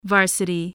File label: "varsity", English